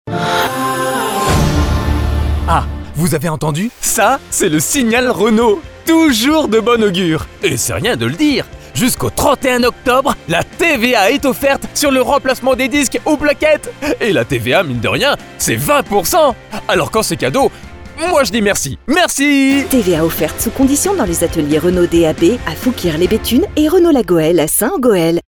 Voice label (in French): Spot Radio, Enthousiaste Dynamique Hyper Heureux; RENAULT